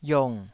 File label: "iong3", taiwanese syllable